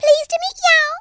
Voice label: "hello", Southern Belle; speech